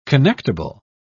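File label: "connectible", British English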